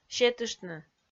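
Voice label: "сетыштны", Komi, female; kpv audio dict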